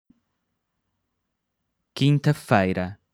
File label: "quinta-feira", Portuguese